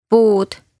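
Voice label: "Puud", Estonian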